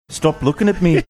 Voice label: saying stop looking at me scouse